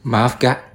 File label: sorry, bonggi